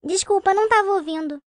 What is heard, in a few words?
gnome female happy npc pissed